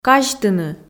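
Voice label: "казьтыны", Komi, female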